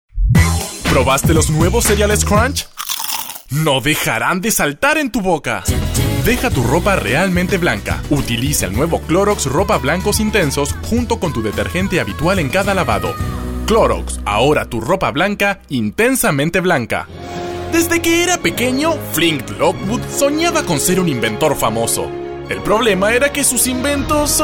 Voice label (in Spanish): Neutro, Hombre